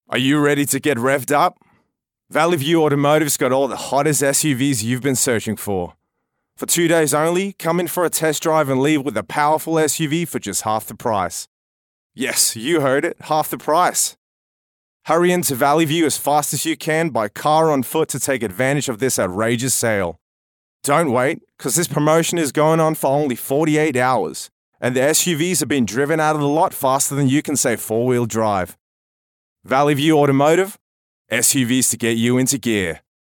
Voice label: English Australian Accent

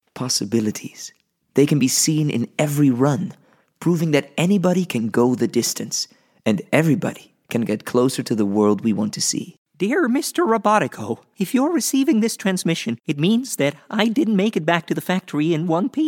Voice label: Home studio Read

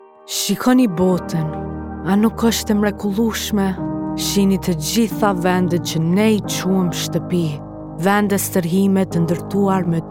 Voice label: Albanian

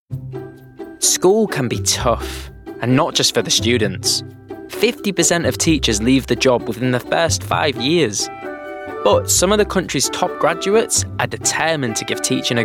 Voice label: documentary; home studios

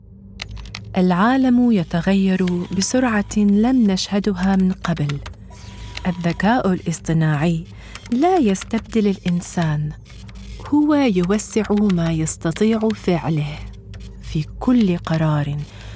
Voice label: Arabic

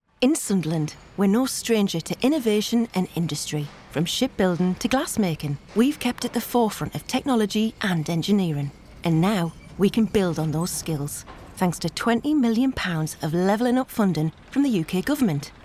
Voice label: Corporate

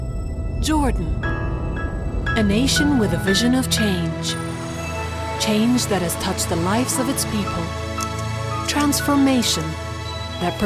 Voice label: English

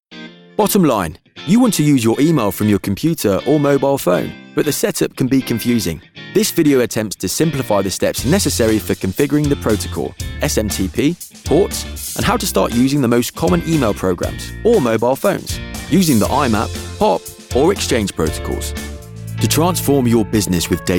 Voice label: corporate

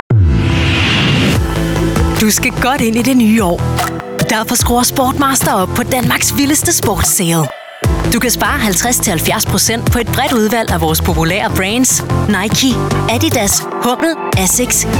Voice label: Danish

